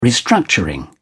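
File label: "restructuring", English, British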